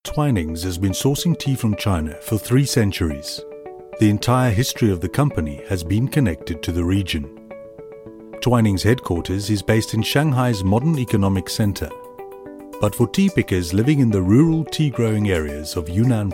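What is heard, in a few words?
English South African M, accent of south africa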